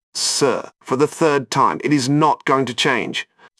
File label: jarvis annoyed; ultron; claude-voice